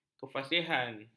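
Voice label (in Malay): "kefasihan", Malay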